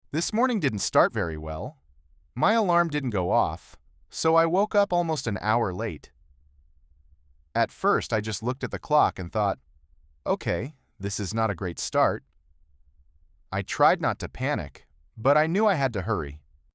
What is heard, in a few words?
american